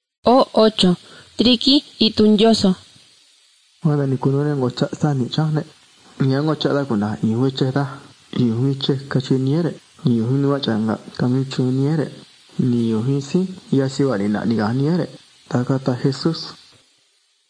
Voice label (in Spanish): spanish mexico